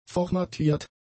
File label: "formatiert", German; adjectives